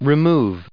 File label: "remove", us